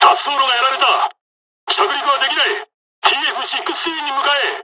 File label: dialog, dialogs japanese; War Thunder